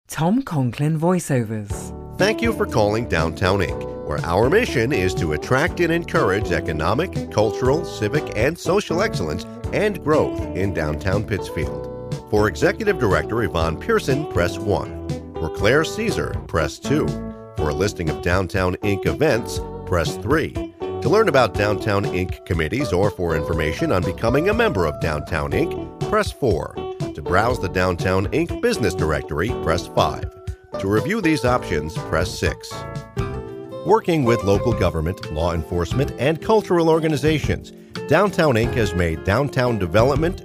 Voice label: voicemail on hold